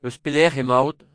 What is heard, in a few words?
where from, Breton; Eus pelec’h emaout?